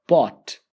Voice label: POT, english; grade1; short vowels

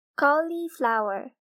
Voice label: Cauliflower, girl

